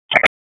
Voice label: Police Northwest District Tac 1; Raleigh; wake; p25

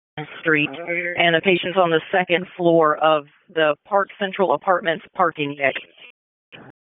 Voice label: PS TAC, p25; wake p25

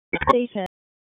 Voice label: EMS ALERT

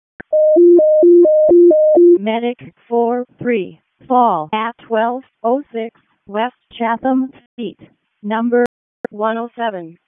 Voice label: WC EMS ALERT, p25

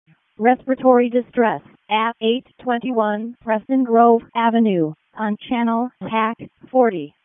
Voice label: EMS ALERT

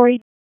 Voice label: Police North District Tac 1, p25; Raleigh; wake

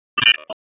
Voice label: Police Northwest District Tac 1; Raleigh; wake; p25

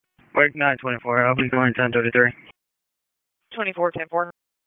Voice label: WSO DISP 3, P25 recordings; wake

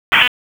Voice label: Police Southwest District Tac 1; Raleigh; wake; p25

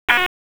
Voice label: Police Tac, p25; Raleigh; Southwest District; wake

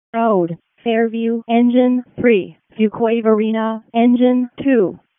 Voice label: FD ALERT, p25; wake

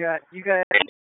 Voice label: Police Spec Ops Tac 4, p25; wake p25

